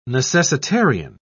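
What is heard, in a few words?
"necessitarian", British English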